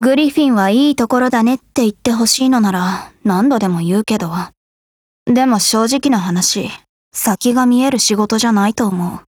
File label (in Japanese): DIALOGUE JP